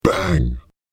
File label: "Bang" Spoken With Deep Voice; human voice sound effect; spoken word